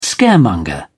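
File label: "scaremonger", British English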